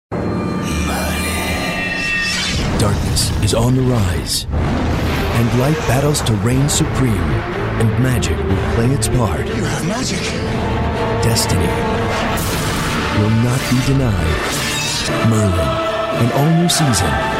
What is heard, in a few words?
Promos